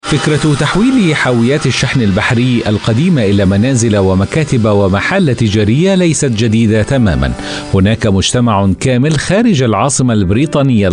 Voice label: arabic voice over male documentary

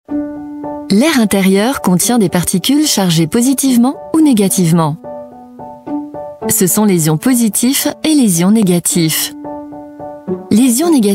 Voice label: french voice over female narrator

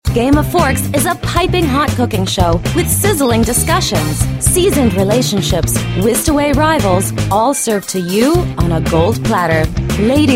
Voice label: english american voice over female commercial

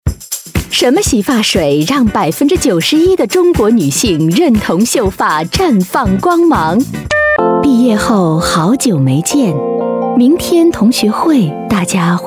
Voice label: chinese voice over female commercial

